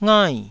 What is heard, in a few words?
"ngai4", taiwanese syllables